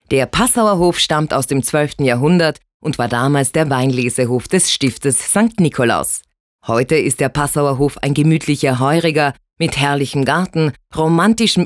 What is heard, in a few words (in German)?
Doku